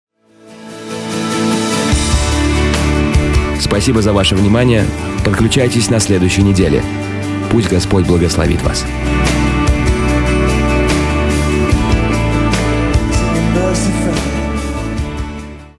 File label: russian m commercial